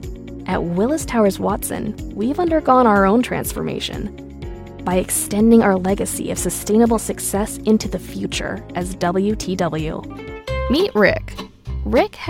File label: english american e learning